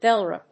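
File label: "bellrope", English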